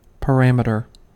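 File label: "parameter", US English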